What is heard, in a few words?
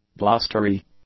"blustery", English; adjectives